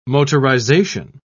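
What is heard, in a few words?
"motorization", British English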